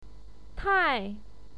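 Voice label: "tai", Mandarin Chinese, fourth tone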